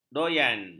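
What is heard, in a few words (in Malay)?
"doyan", Malay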